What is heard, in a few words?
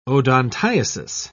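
"odontiasis", English, British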